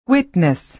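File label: "witness", American English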